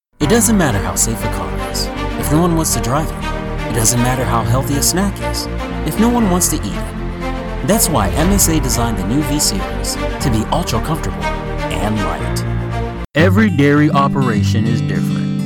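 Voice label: male, English characters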